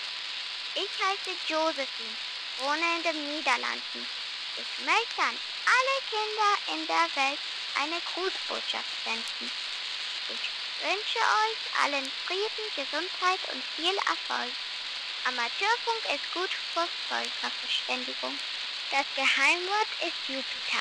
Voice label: arissat german